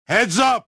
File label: anger; XBOX; lando